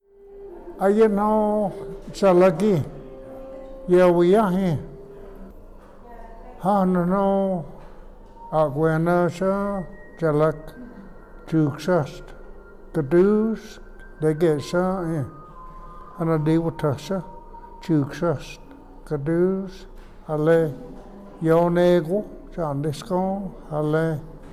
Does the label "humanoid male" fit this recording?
No